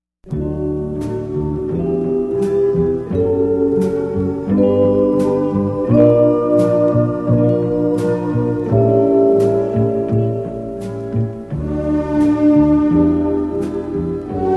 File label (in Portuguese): Dia das Maes pra avo, F